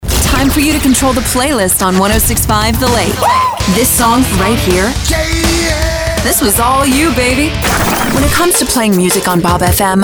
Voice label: radio adult hit, radio imaging

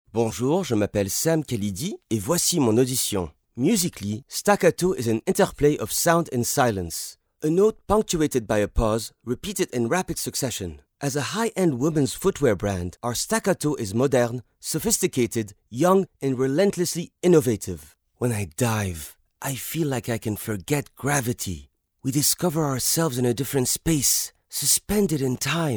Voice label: languages men french accent

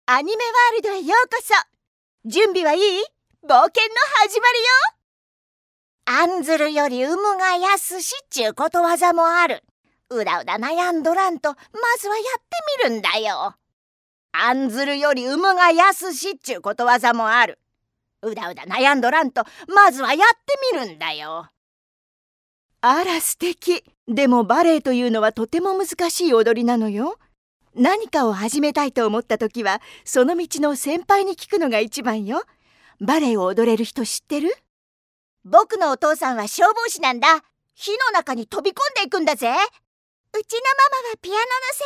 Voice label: languages women animation japanese

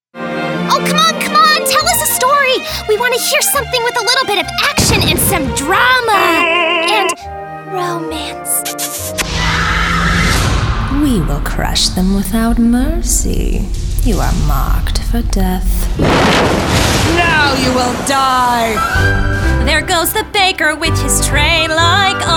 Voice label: animation female toons

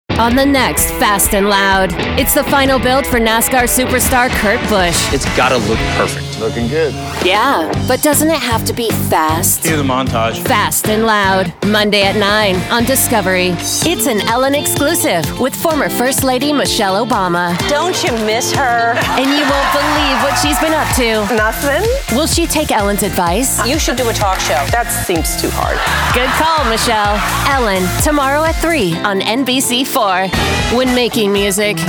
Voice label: promo female

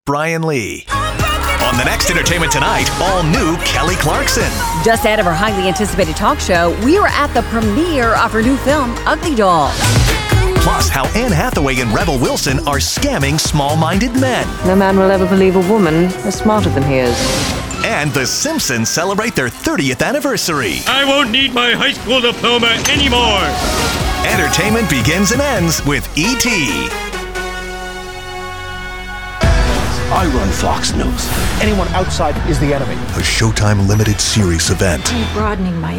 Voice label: promo men

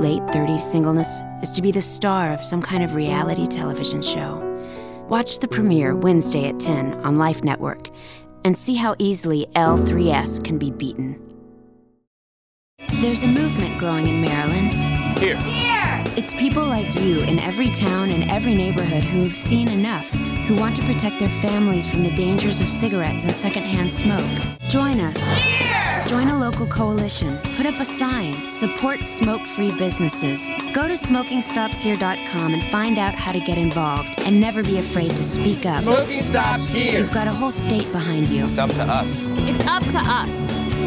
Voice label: Promo, women

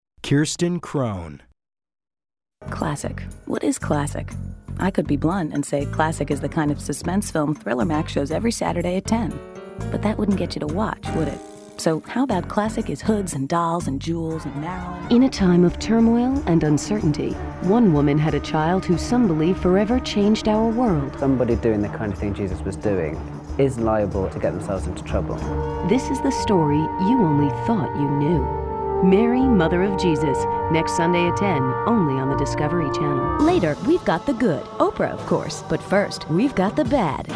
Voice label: promo, promos women

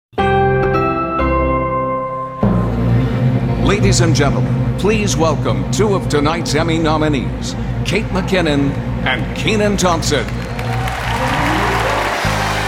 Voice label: show and stadium announcers, men

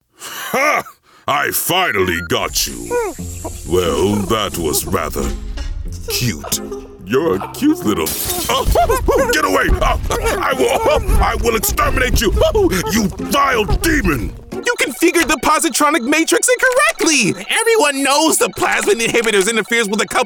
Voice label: animation men

